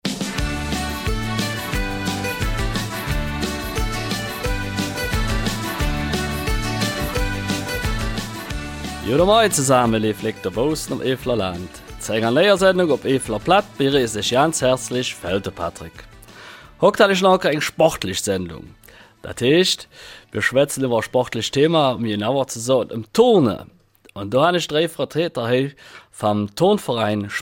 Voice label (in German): Mundart; Jubiläum des Turnvereins Burg-Reuland und Geschichten und Gedichte aus alter Zeit